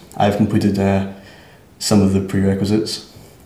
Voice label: interviewee male